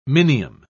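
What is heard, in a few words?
"minium", British English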